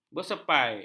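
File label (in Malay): "bersepai", Malay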